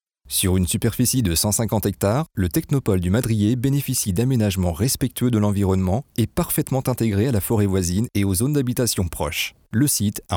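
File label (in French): French, male, commercial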